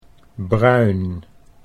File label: "bruin", Dutch